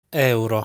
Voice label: "Euro", Italian; lesson 2 numbers